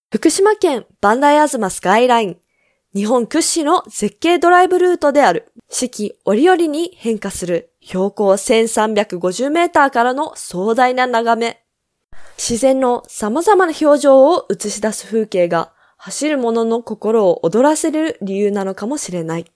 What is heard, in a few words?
Japanese, Female